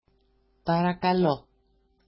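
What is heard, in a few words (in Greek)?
"parakalo", Greek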